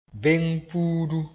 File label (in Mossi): "beng-puudu", moore